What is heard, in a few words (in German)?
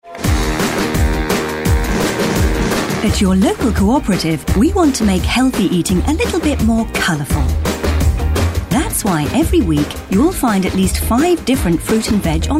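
British English Werbung Dynamisch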